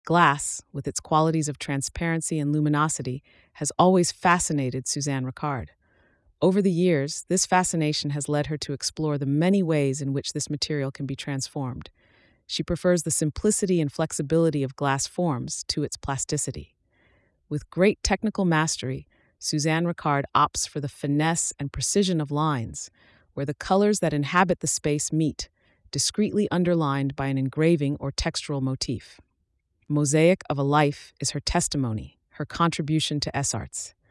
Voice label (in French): anglais